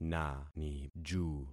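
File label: "naniju", concatenated syllables; Affixing experiment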